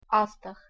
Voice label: "astR", Armenian

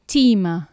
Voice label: "tima", Anglo Saxon

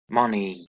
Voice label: "manig", Anglo Saxon

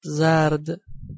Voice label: "zard", Persian

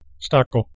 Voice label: "stako", Proto Germanic

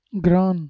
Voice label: "gran", Balochi

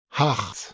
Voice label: "h2eh2s" PIE

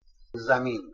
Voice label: "zamin", Persian

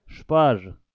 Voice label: "SpaZ", Pashto

